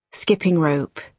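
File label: "skipping rope", British English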